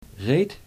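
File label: "reed", Dutch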